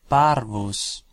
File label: "parvus", latin